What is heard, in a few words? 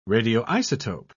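"radioisotope", British English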